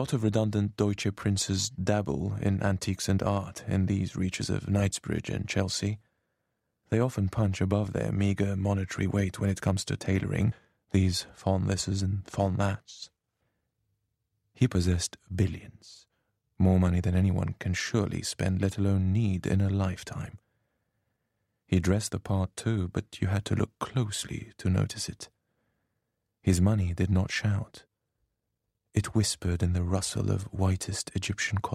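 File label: British English, Audiobook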